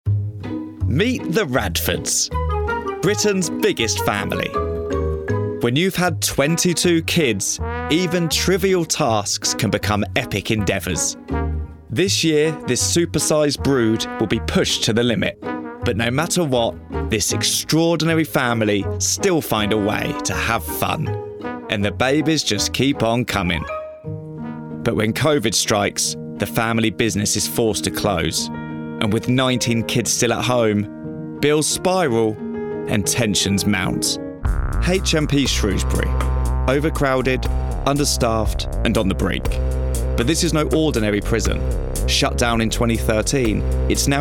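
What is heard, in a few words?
Documentary Reel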